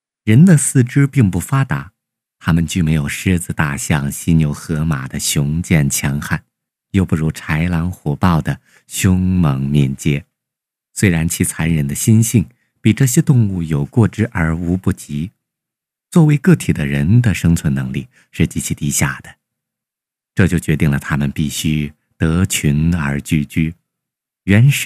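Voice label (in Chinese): 模仿男; 专题; 模仿-赵忠祥老师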